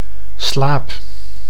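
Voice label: "slaap", Dutch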